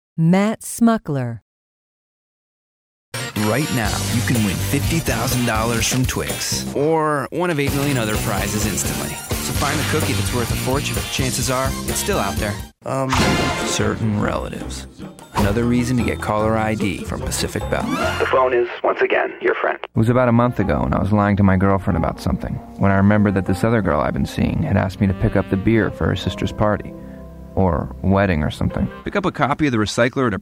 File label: commercial; Innovative LA